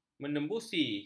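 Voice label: "menembusi", Malay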